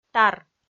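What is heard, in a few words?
"тар", Komi, female; audio dict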